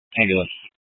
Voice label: Fire Departments, scanner

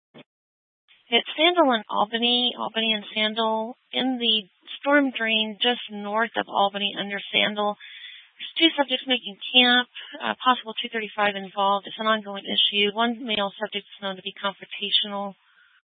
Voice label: Dispatch, scanner

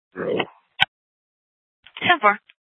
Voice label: Sheriff, scanner P25; Shrf Lakes

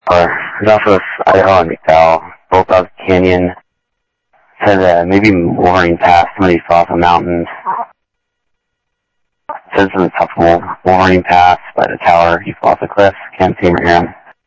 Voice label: South Scottsdale Sheriff, scanner audio

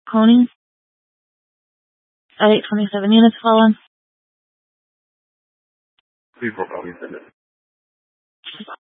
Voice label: Phoenix Police Dispatch; Regional Wireless Cooperative (RWC) - Thompson Peak High; 200 Black Mountain Precinct

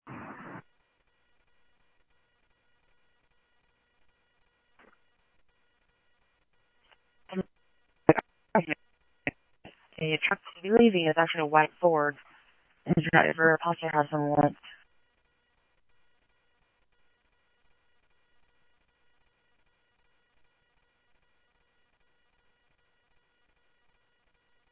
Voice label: Simulcast Police Dep, scanner audio trunk

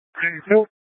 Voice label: Light Rail Emergency, scanner

